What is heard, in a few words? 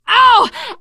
horde attack, teen girl, survivor voice